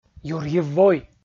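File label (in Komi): "юрйыв вой" Komi, male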